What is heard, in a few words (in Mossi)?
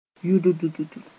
"yʋddd", moore